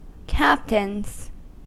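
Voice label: "captains" English, American accent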